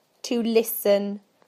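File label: "to listen", British English; PictureDictionary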